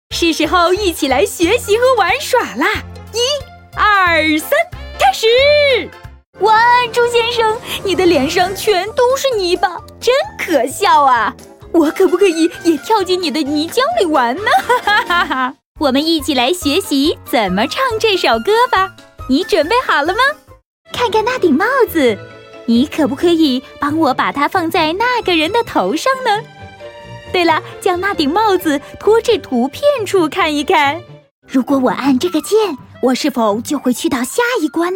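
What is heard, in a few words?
Mandarin, toys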